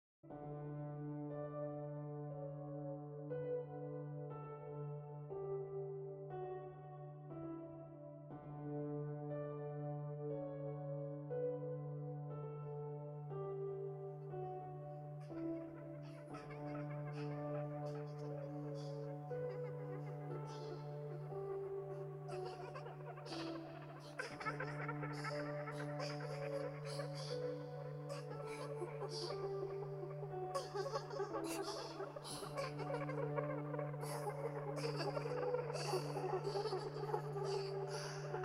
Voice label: 囁き; 脳内TranceW; そして天国へと誘う極上のダブル・トランスサウンド

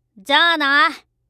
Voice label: "じゃあな", 挨拶

